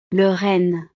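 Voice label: "le renne", French; navidad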